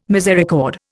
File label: "misericord", Indian